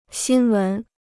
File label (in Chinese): "新闻", Chinese; xīn wén; news